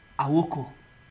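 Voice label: "awoko", Nigerian Pidgin, male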